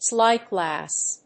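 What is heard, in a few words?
"slide glass", English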